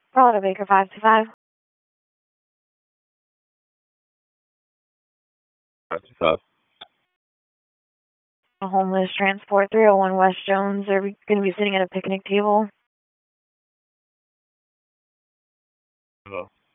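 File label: Raleigh Police Southeast District Dispatch, p25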